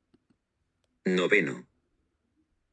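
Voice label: "noveno", Spanish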